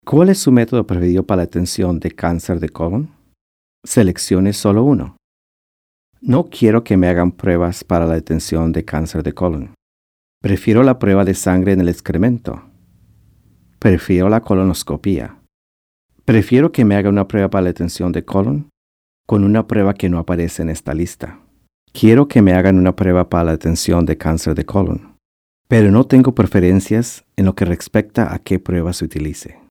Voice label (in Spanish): spanish